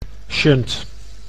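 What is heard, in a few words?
"shunt", Dutch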